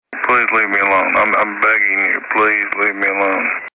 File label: begging